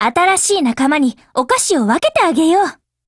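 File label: Japanese, Voice; BUILD OVER; GF Alarm; SPAS12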